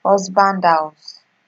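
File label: husband house, Nigerian Pidgin, female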